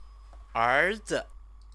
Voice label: "erzi", Chinese